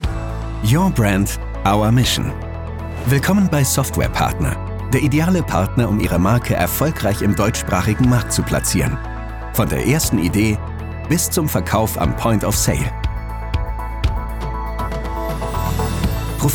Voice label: Imagefilm, maenner; Software Partner

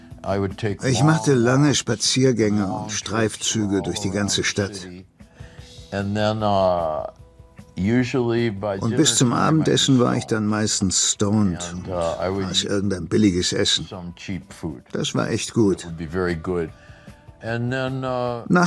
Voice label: Voice Over; ARTE

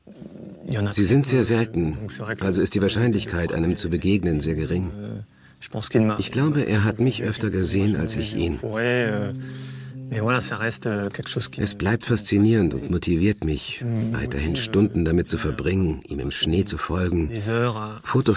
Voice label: Kommentar, maenner; Arte; Auf der Fährte des Luchses

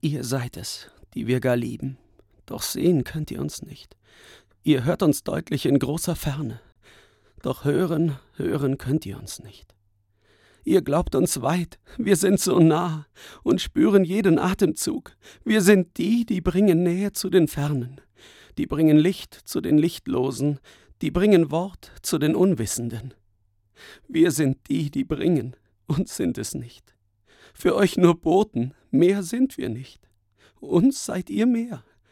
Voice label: traurig, maenner

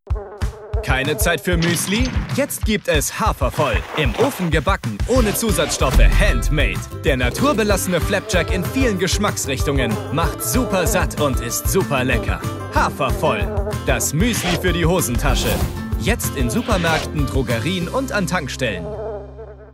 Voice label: Spots, maenner; Hafervoll

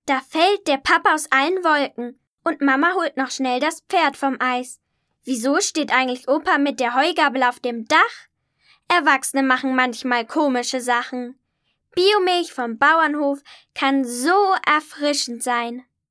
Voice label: maedchen; Biomilch

